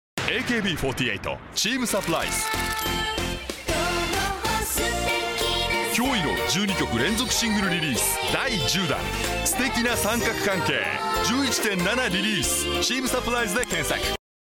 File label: tv commercial